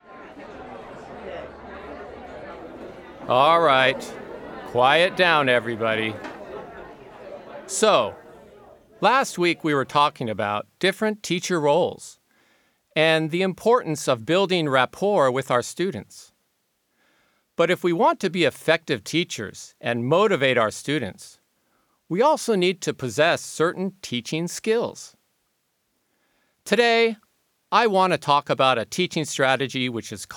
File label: Lecture Ambience